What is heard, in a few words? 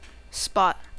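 "spot", Serbian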